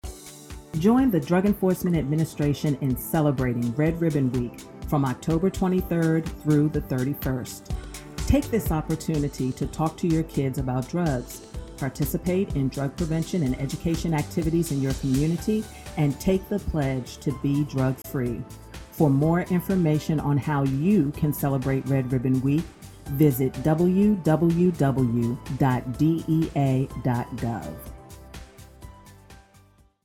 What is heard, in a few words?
Radio PSA English; Red Ribbon